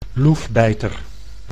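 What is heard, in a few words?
"loefbijter", Dutch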